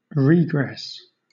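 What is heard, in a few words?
"regress", British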